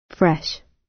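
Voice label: "fresh", British English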